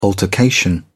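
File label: "altercation", British English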